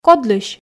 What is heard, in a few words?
"кодлысь", Komi